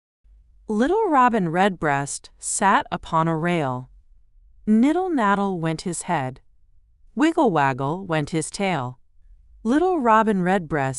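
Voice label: female US, classic rhymes; Little Robin Redbreast Sat Upon a Rail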